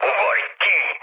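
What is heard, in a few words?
Hungarian bailout mood high, speech; War Thunder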